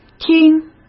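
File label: "听", Mandarin Chinese; chinese flashcards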